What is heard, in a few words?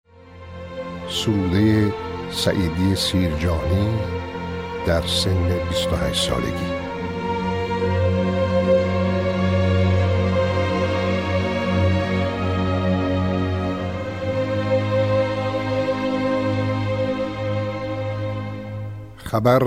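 farsi male narration; voice demos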